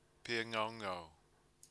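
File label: "pia ngaungau", Māori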